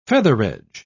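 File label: "featheredge", British English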